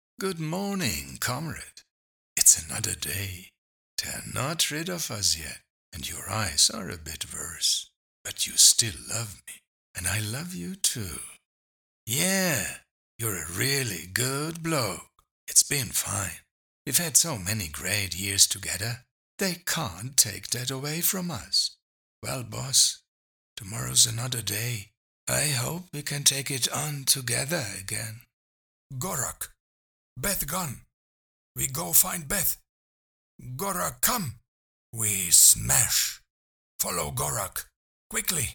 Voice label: ENGLISH ANIMALS

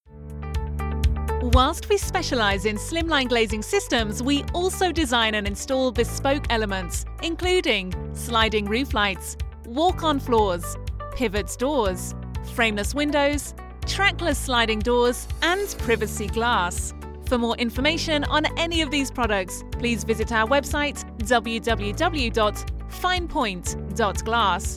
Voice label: Hold Messages; Fine Point

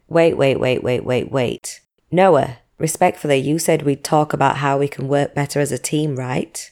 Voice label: animation; Praxis Lab

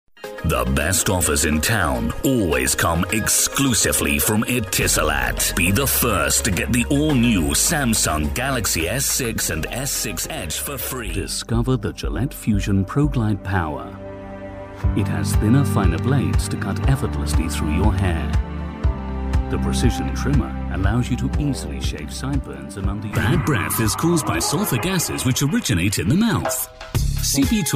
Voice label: TV AND RADIO ADS

